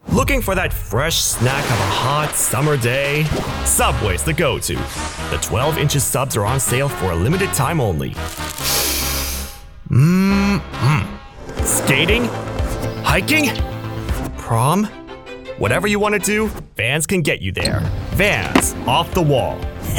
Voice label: Commercial